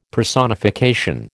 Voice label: "Personification", American